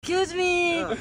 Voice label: excuse me notification

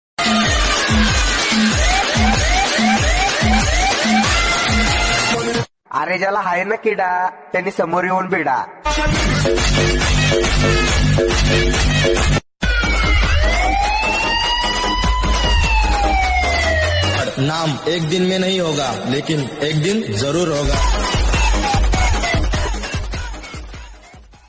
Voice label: marathi dj dialogue; bhaigiri

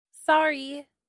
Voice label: sorry sexy voice notification

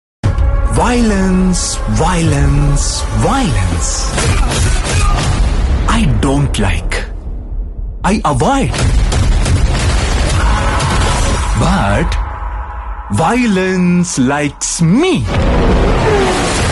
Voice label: tamil dialogue; kgf chapter 2

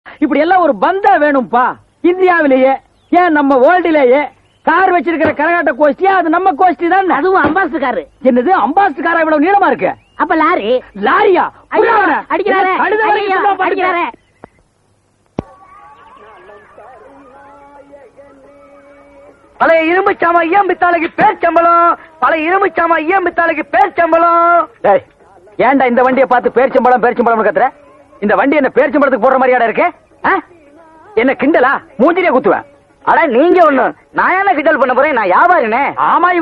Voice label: car comedy

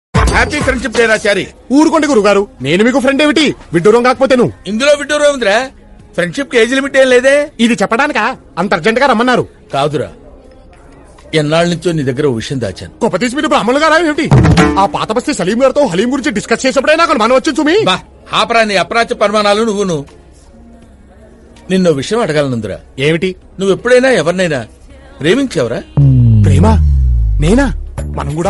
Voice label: happy friendship day dialogue Hindi dialogue

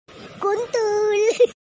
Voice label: funny voice; kuntul

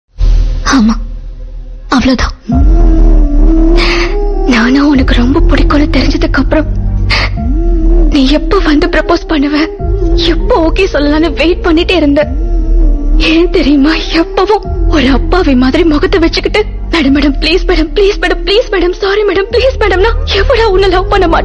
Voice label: tamil sad dialogue

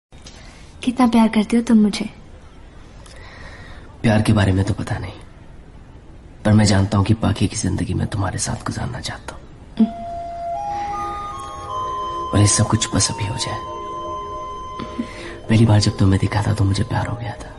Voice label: dialogues malayalam; ranam

